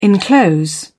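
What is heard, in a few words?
"enclose", British English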